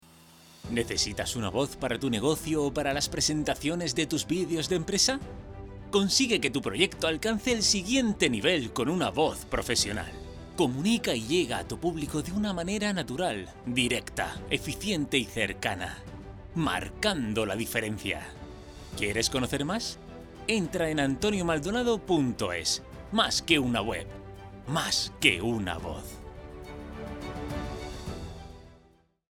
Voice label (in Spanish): publicitario